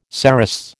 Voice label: "cerastes", American

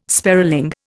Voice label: "sparling", Indian

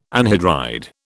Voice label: "anhydride", British

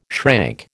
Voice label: "shrank", American

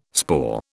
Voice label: "spore", British

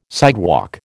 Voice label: "sidewalk", American

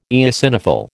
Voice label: "eosinophil", American

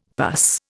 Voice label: "pus", Indian; Dictionary

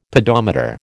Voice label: "pedometer", American

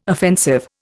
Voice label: "offensive", Indian

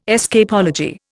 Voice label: "escapology", Indian

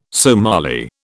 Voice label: "Somali", British

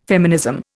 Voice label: "feminism", Indian

